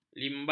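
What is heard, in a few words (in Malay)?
"limbah", Malay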